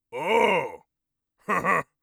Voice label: amused, orc voice; Orc Voice Pack

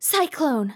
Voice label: cyclone, RPG Voice; RPG Voice Starter Pack

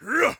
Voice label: attack, orc voice; Orc Voice Pack

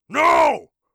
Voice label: nooo, orc voice; Orc Voice Pack

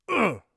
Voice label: defend, Warrior Voice; Warrior Voice Pack

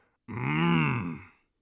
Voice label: satisfied, Orc Voice; Orc Voice Pack

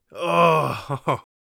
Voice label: thankful, warrior voice; Warrior Voice Pack

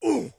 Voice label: hit, orc voice; Orc Voice Pack

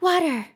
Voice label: "water", RPG Voice; RPG Voice Starter Pack

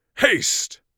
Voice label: haste, mage voice; Mage Voice Pack

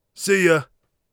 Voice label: bye, Warrior Voice; Warrior Voice Pack

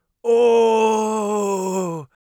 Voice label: poisoned, mage voice; Mage Voice Pack

